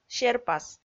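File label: "серпас", Komi, female; kpv audio dict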